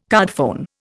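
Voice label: "cardphone", Indian English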